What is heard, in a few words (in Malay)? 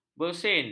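"bersin", Malay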